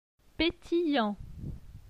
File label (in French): "petillant", francais